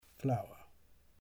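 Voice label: "flower", English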